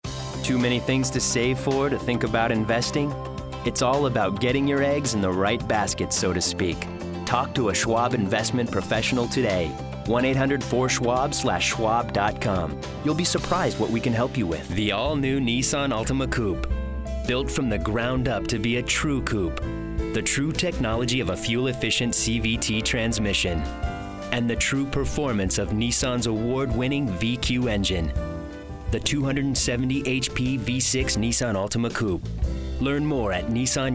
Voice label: Commercial, voice-over; voice-overs